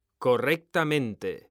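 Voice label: "correctamente", Spanish, male; mekanta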